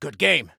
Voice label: Compliment Good Game, Soldier Male; Soldier Male 01

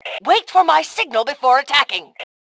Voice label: Attack Wait, Female; T2 Female 02

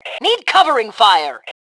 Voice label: Need Cover, Female; T2 Female 02

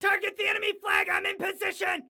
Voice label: Target Flag, Excited Guy

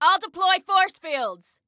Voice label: Self Task Deploy Forcefields, Female

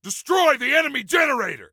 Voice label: Attack Generator, Soldier Male; Soldier Male 03

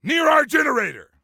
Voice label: Warn Enemy Near Our Generator, Soldier Male; Soldier Male 03

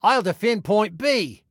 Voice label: DEFEND, Male

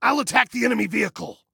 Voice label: Self Attack Vehicle, Male

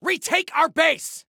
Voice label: Base Retake, Soldier Male; Soldier Male 01

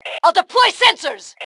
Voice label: Deploy Sensors, Female

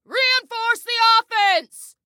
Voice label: Attack Reinforce, Female

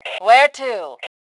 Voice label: Need Where To, Female; T2 Female 03

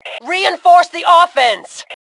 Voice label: Attack Reinforce, Female; T2 Female 04

